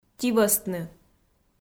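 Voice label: "тивӧстны", Komi, female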